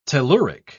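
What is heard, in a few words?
"telluric", British English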